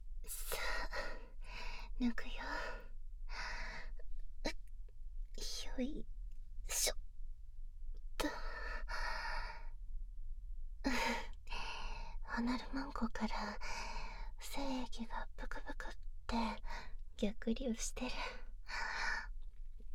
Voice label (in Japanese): ピロートーク＆催眠解除